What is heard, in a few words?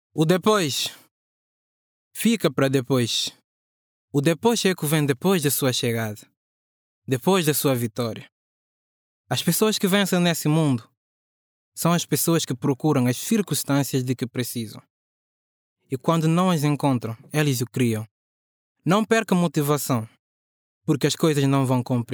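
Portuguese Mozambique, male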